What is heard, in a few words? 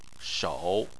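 "shou" tone 3, Chinese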